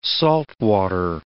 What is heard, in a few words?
"saltwater", English